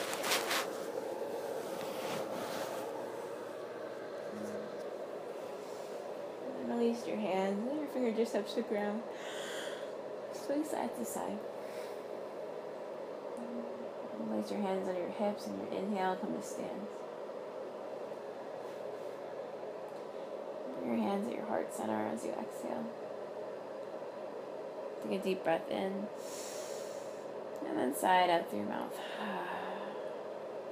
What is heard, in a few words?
Sleep Talking